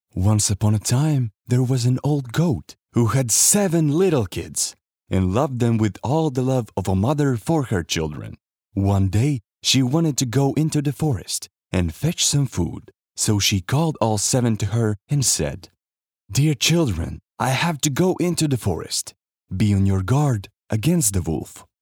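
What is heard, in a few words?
Pasaka, English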